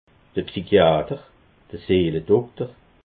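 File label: "psychiater", Alsatian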